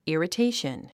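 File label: "irritation", English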